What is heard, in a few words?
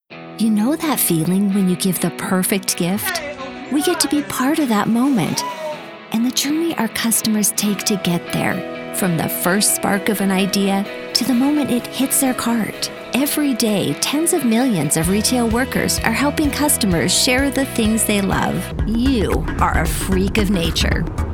women corporate